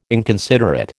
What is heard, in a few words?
"inconsiderate", American